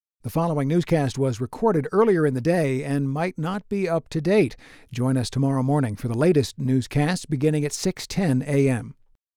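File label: announcement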